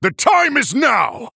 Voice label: Male Human Attack